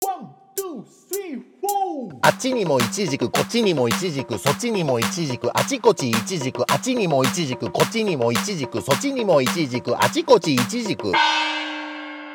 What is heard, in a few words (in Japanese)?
早口言葉リズム（中級編）